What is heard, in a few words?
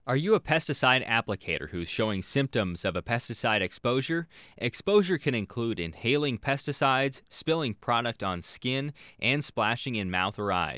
Applicators Male